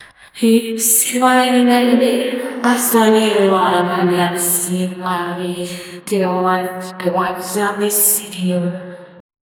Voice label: announcement

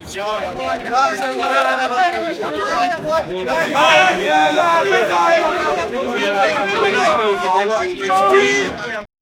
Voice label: a crowd arguing in french; argument

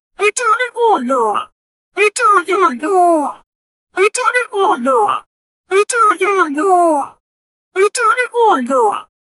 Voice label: a cute vtuber saying, japanese

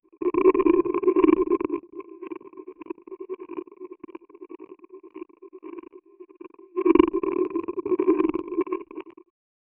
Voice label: alien speach resonating

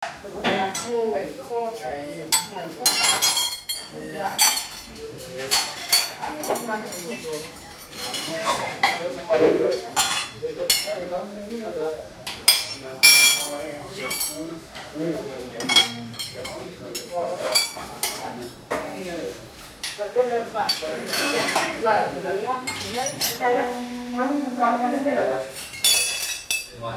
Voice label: muffled arguments of olde, diner

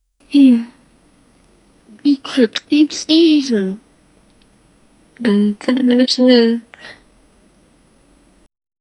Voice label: a girl saying i love, romance

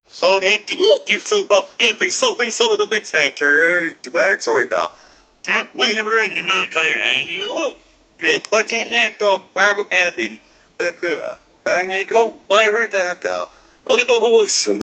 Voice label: italian man says that, argument

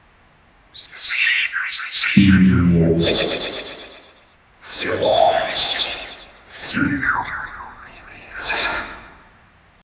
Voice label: mysterious whispers that

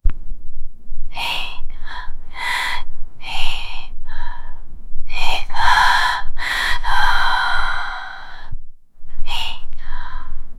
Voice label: scared woman whispering p; anxious

